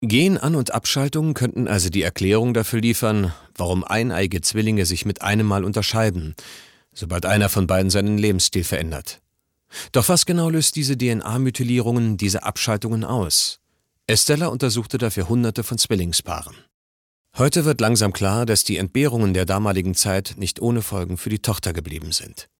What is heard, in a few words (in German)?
kommentar